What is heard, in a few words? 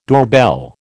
"doorbell", American